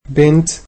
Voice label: "girl", egyptian